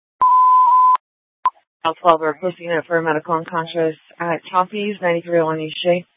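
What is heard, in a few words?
police dispatch, Scottsdale PD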